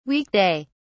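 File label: "week day", British English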